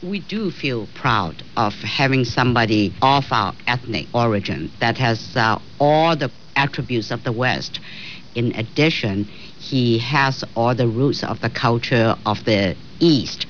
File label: chinese